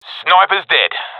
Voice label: sees sniper killed, professional; vo; Counter Strike Model Pack; CS:GO